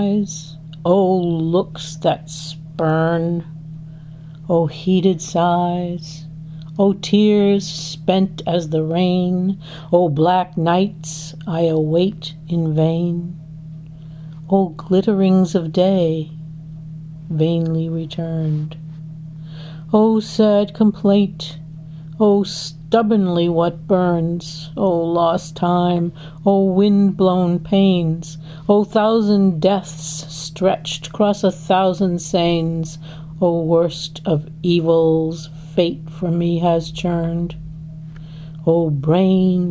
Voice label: sonnet ii english